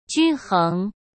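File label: "均衡" Mandarin Chinese; hsk; chinese-flashcards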